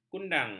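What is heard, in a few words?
"kundang", Malay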